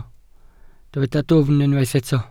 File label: "dwfn", Welsh